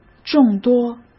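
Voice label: "众多", Mandarin Chinese; hsk; chinese-flashcards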